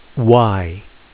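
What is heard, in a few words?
name "y", 英語アルファベットの文字の名前; phonics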